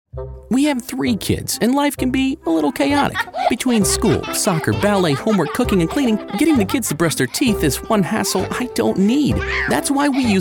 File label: male commercials; Internetjock